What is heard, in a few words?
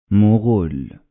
"Moghul", Arabic